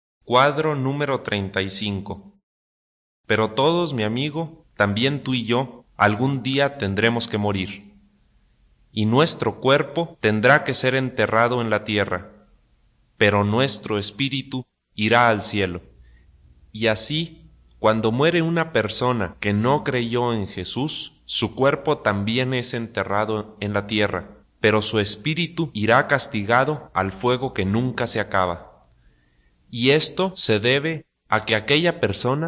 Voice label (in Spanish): good news spanish mexico